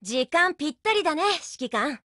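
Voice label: hello, Japanese voice; GF Alarm; TavorTS12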